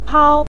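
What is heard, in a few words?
"pao", Mandarin Chinese neutral tone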